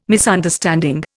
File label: "Misunderstanding", Indian